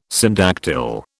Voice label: "syndactyl", British